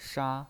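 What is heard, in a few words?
"sha", Mandarin Chinese pinyin, first tone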